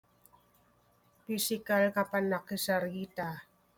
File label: Ilocano